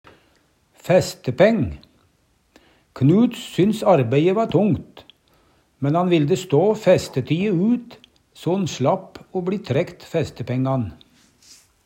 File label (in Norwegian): festeti, numedalsmal